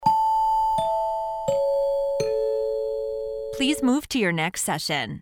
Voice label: four chimes descending please move to your next session